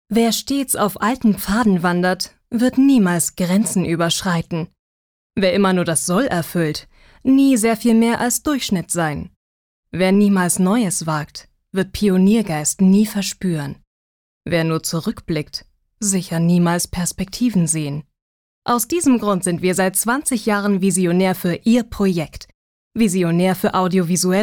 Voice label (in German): Neutral